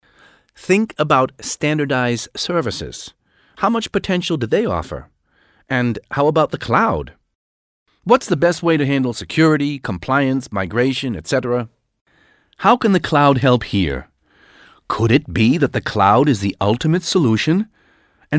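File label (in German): American English Werbung Freundlich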